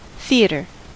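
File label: "theater", American English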